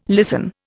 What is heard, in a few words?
"listen", Indian